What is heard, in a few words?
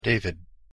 pronunciation en "David"